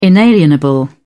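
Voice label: "inalienable", British English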